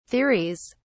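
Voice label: "theories", British English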